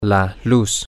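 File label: the light, Spanish, male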